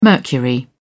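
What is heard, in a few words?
"mercury", British English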